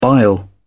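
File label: "bile", British English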